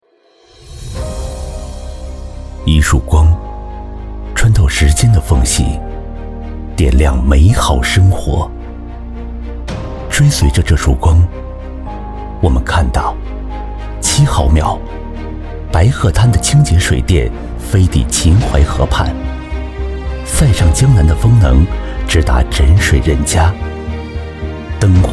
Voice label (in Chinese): 男 力量走心; 中国电科